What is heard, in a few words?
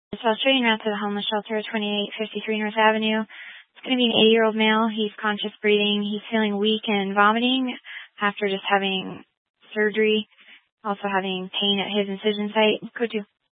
Fire Department Dispatch; Grand Junction